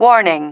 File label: "warning", female